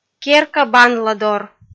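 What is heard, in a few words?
"керка банладор", Komi, female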